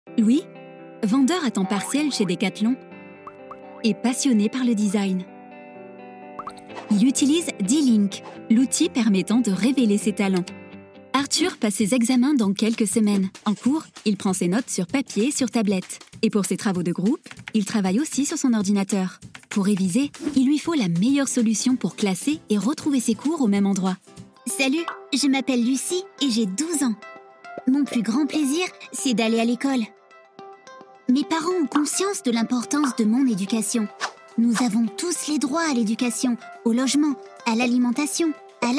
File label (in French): voix off e-learning pedagogie